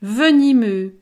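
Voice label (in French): "venimeux", French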